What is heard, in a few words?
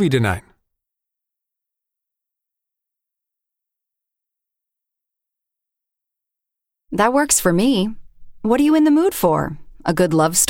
Pronunciation Practice; Connectivity 2